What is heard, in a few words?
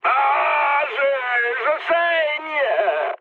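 damaged pilot, mood high, French; War Thunder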